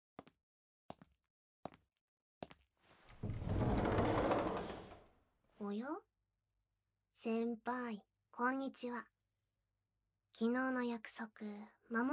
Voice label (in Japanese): オノマトペ手コキと耳舐め, ASMR; 秘密の空き教室